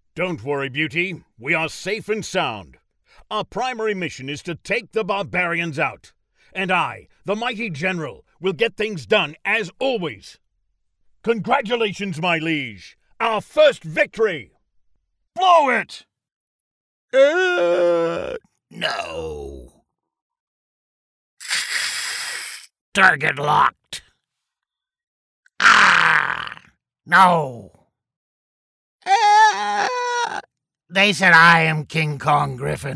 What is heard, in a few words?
english canadian video games